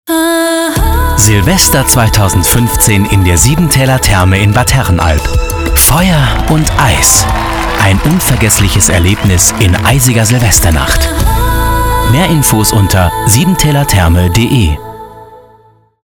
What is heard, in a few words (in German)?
Silvester spot; siebentälertherme; Silvester2015